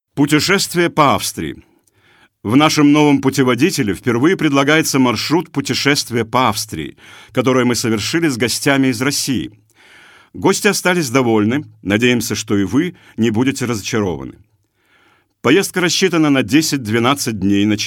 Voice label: Russland M

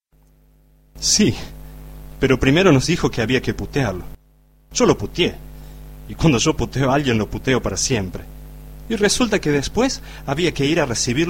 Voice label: Argentinien M2, voiceactors

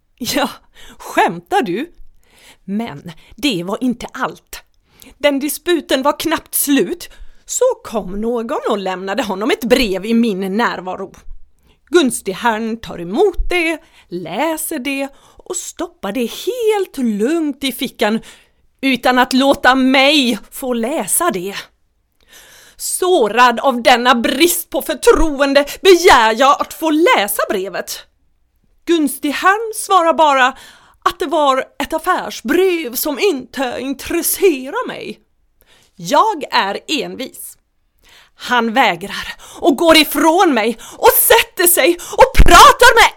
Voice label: Schweden W

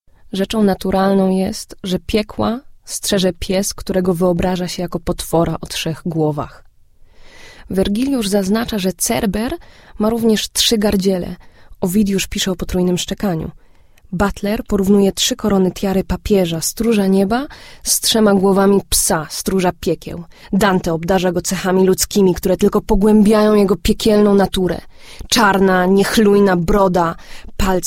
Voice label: Polen W

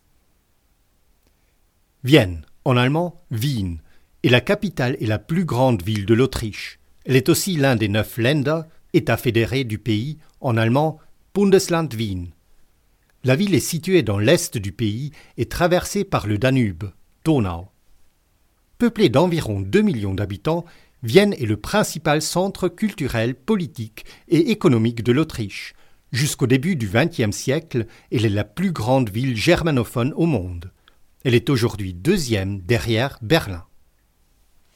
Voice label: Frankreich M

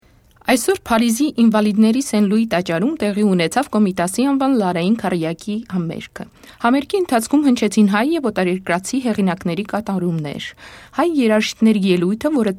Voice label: Armenien W2, voiceactors

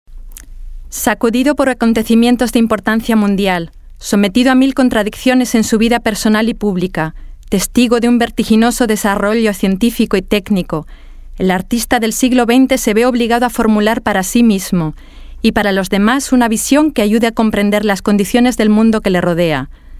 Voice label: Spanien W

